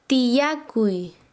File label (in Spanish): "sentarse", Quechua Chanka